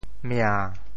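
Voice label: "mia~7", Teochew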